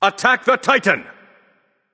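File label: vox attack male; smite; loki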